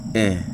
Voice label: "this", Wahgi; lae unitech